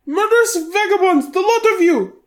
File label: guard female; SyrinScape; Waterdeep